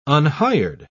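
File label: "unhired", British English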